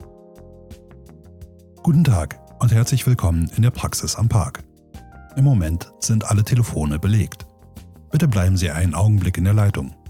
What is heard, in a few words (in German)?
Telefonansage; Sprecherprofil